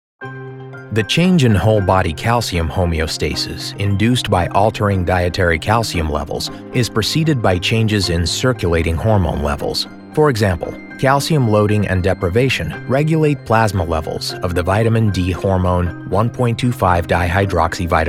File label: E LEARN MEDICAL